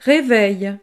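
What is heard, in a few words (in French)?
"reveil", French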